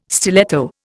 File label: "stiletto", Indian